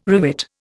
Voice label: "rivet", Indian